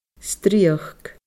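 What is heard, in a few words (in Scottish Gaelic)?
"strìochd", Scottish Gaelic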